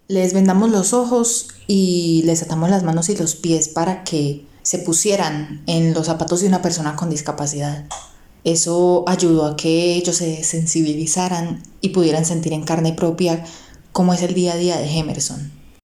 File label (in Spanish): Mujer Adulta; casting trineo tv